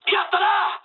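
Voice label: player killed enemy mood high, speech japanese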